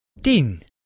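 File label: "tien" Dutch male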